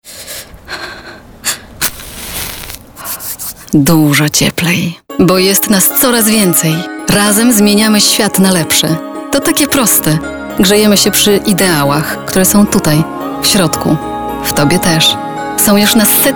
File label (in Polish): F REKLAMA